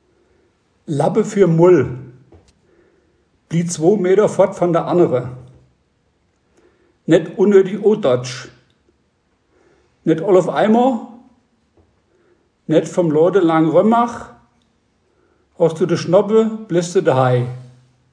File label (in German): Rhöner Platt Hygieneregeln